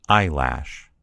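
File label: "eyelash", American English; gcompris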